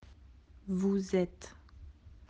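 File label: "être", French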